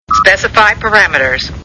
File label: specify parameters, computer voice